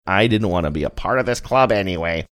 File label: saying i didnt wanna be part of thus club anyway funny